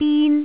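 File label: "bin1", Jyutping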